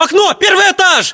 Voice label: location first floor window, ru; localized english